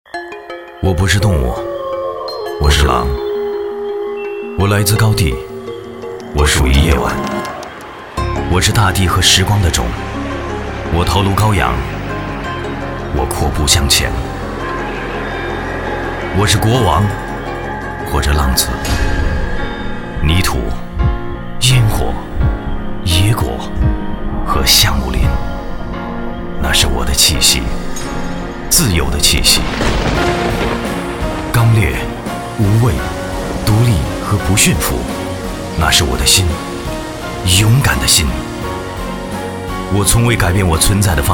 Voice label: 男 广告 威士忌; 国语男声配音

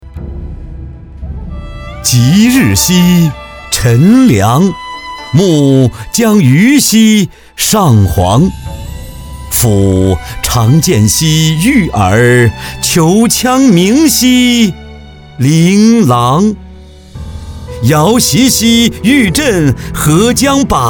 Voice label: 男 纪录片 阴阳顿挫 诗歌

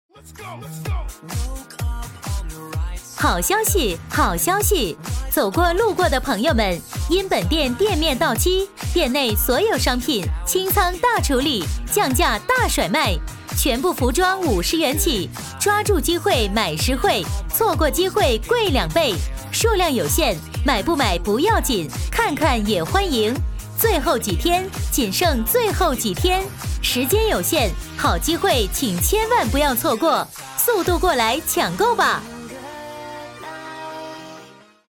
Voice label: 女 促销 商品清仓大处理

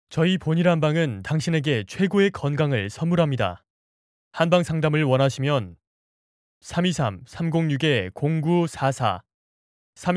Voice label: 外籍韩语男 严肃

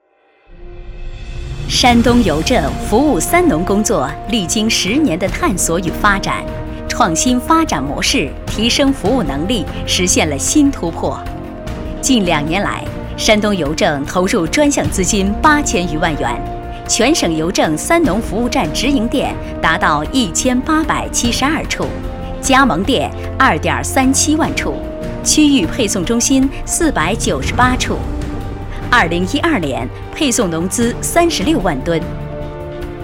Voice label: 女 专题, 大气; 国语女声配音

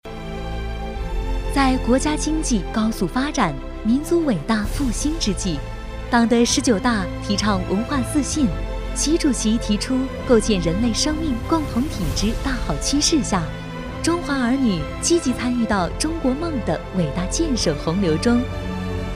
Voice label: 女 宣传片

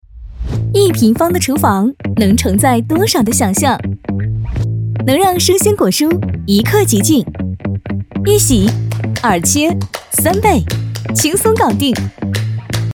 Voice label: 女,TVC; 美的

